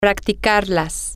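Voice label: to Practice It feminine plural, Spanish, female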